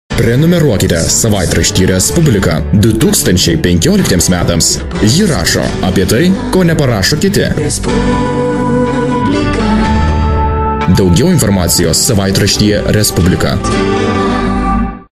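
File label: lithuanian male commercial; voice demos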